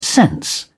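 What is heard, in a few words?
"sense", British English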